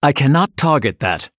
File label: Male Blood Elf ERROR TARGET